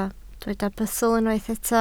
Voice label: "bustl", Welsh